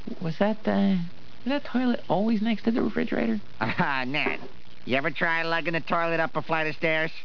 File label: Tirade; toilet